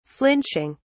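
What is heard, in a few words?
"flinching", British English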